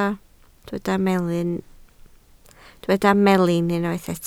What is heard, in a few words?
"melin", Welsh